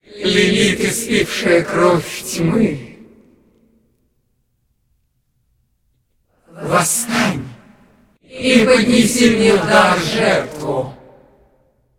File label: cinematic voice, ru; lineage2